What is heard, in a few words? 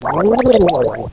submarine annoyed; ships; war2; alliance sounds